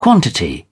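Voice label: "quantity", English, British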